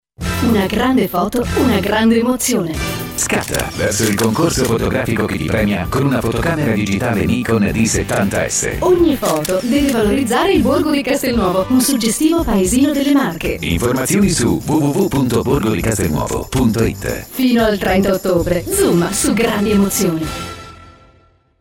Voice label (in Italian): spot radio